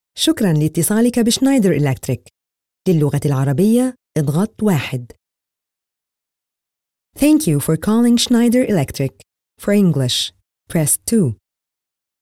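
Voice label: arabic interactive voice response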